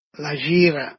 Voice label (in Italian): "la gira", Dialetto di Albosaggia; Ghiro